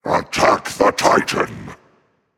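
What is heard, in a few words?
vox attack male; smite; zeus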